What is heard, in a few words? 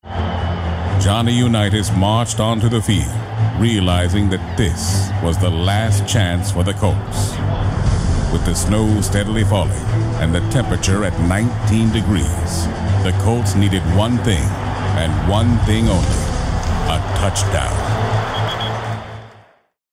Sports Promo